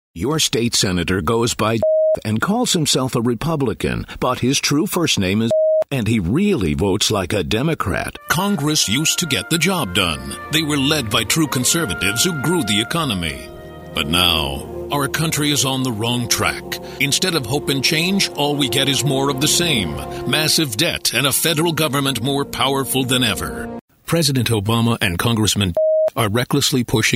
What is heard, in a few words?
english american political ads